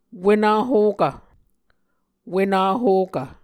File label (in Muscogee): "wenahokv", Muscogee